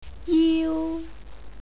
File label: "jiu", Cantonese